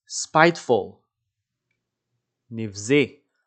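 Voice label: "spiteful" English, "נבזי" Hebrew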